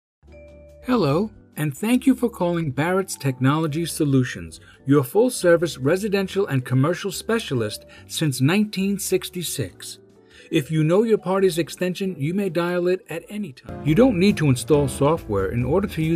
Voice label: On Hold Compilation

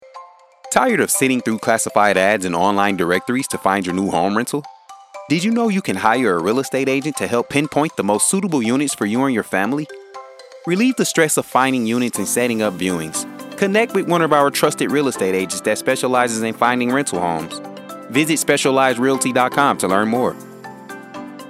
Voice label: commercial

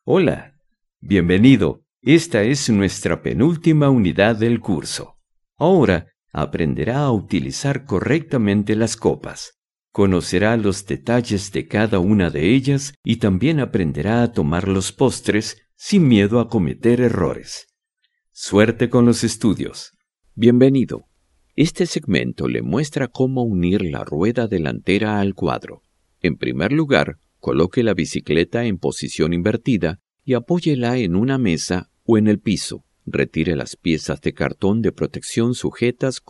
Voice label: No Noise no background music love

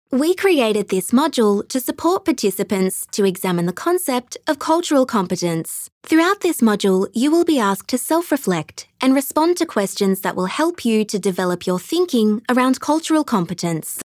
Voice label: Cultural Competence e-Learning

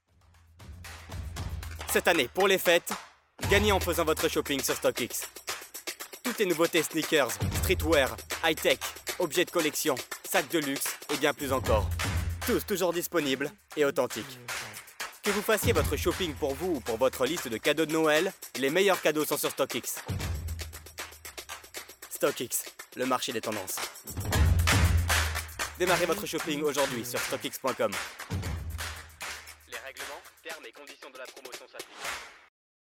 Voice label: ecommerce Young Energetic; StockX

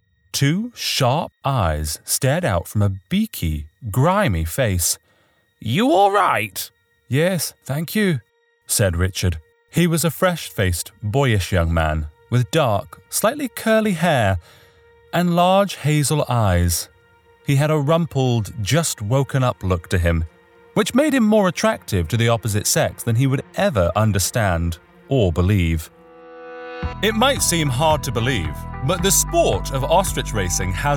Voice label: NARATIVE

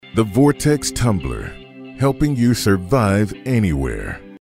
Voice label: Casual Soft-sell

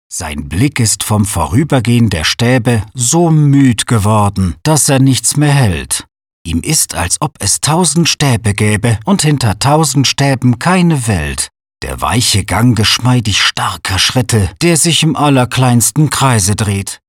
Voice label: German Poetry

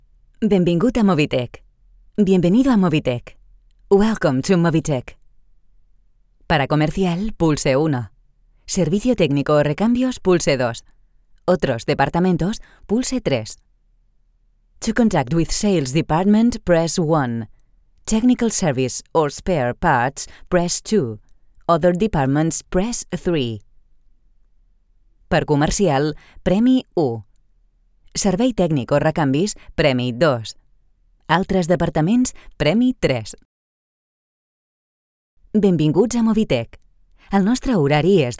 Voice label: Catalan Spanish English IVR

